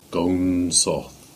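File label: "South Downs" Cornish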